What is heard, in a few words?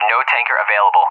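"no tanker available", English speech; AWACS; DCS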